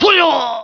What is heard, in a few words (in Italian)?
Monaco, Voce del monaco tibetano; Il JukeBox di Lara